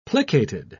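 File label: "plicated", British English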